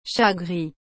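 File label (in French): "chat gris", French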